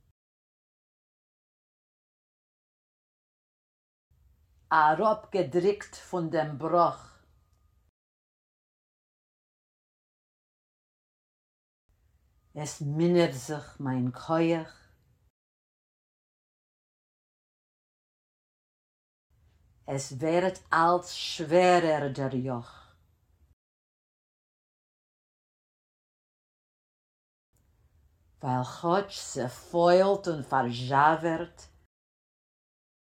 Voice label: verse 2 pronunciation drill; Listen and repeat; Der Yokh